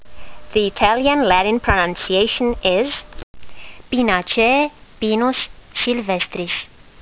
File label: latin; Pinus sylvestris